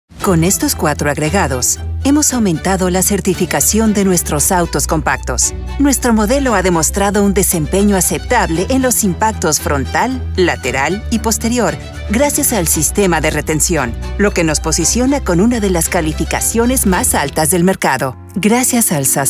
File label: Spanish Corporate Narration